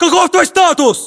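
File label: status check, battlechatter, Russian; localized english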